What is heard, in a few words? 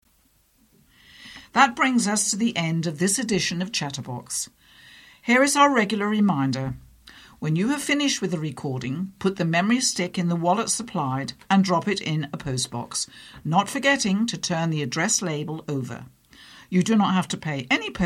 Closing Announcement